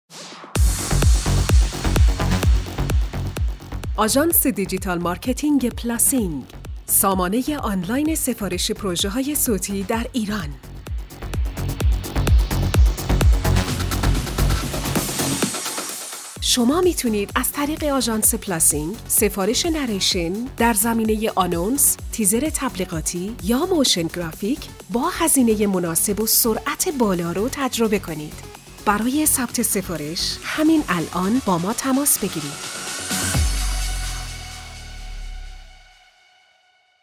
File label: narrator